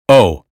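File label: "nose", American English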